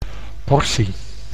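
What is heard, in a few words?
"portie", Dutch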